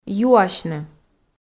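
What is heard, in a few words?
"юасьны", Komi, female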